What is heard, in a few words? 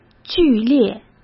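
"剧烈", Mandarin Chinese; hsk; chinese-flashcards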